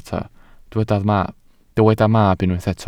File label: "mab", Welsh